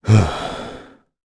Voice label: vox, jp; voices heroes jp; Crow; kingsraid